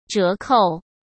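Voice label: "折扣", Mandarin Chinese; chinese-flashcards; hsk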